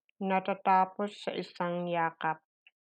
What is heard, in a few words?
tagalog